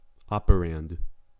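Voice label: "operand", American English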